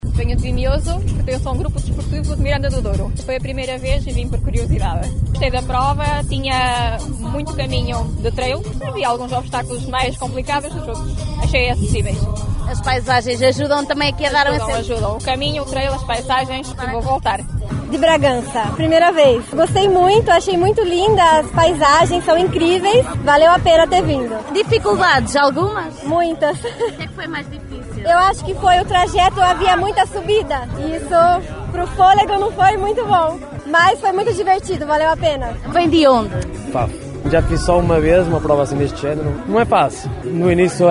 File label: vox pop